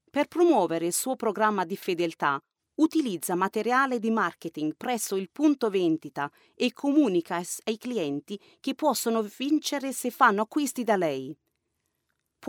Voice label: female italian voice over sales